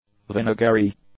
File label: "vinegary", English; adjectives